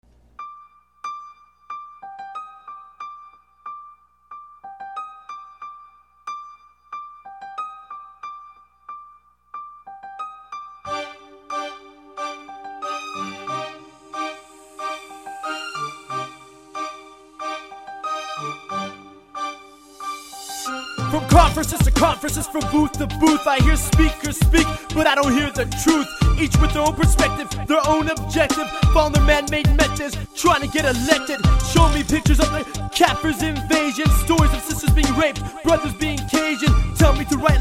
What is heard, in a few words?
Arabic Rap, Islamic Rap; Nasheeds